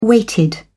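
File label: "weighted", British English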